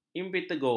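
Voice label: "impetigo", Malay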